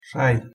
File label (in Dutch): "шай" Kazachs; thee-in-andere-talen